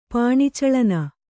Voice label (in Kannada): "ಪಾಣಿಚಳನ", Kannada